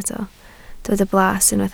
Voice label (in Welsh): "blas", Welsh